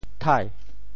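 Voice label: "thai2", Teochew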